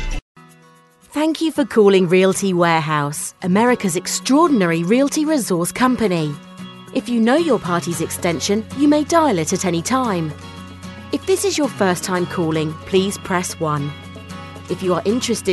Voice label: telephone